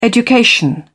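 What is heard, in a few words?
"education", British English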